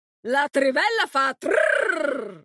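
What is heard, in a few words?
Italian; 探奇（守望先锋2）; 终极技能; 意大利语; La trivella fa trrrrr!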